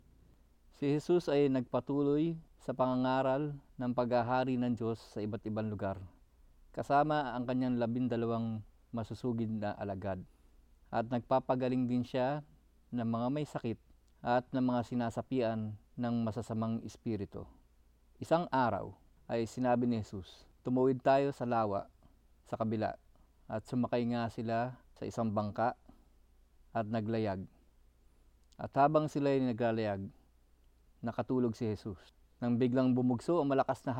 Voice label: Tagalog; Pagtigil ng unos; Jesus Calms the Storm

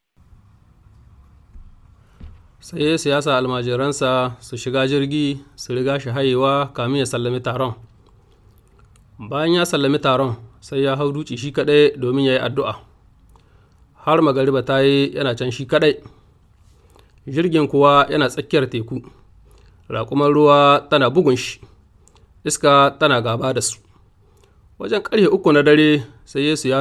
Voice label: Hausa Nigeria; Yesu yayi tafiya a ruwa; Jesus Walks on Water

